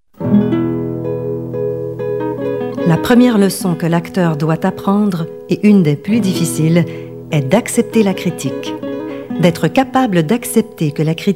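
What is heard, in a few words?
french female voice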